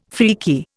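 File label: "freaky", Indian English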